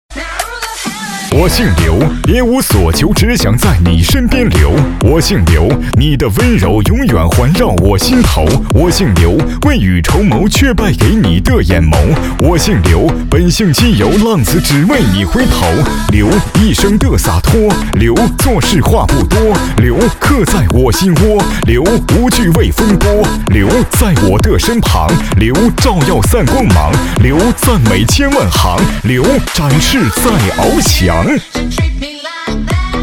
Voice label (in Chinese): 男, 喊麦; 抖音喊麦